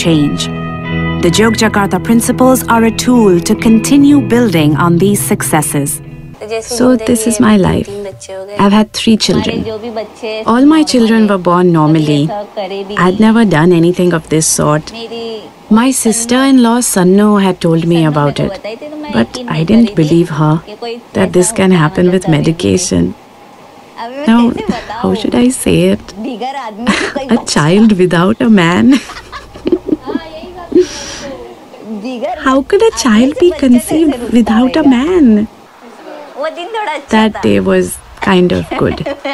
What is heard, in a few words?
Narration Examples